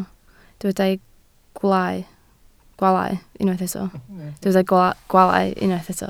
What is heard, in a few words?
"gwaalau", Welsh